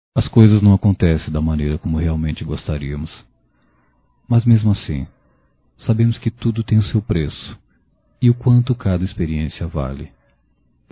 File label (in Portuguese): Valeu a Pena Masculino, Só Voz; Telemensagens; Telemensagens 2017